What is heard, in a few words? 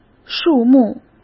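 "数目", Mandarin Chinese; hsk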